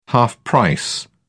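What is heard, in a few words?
"half-price", British English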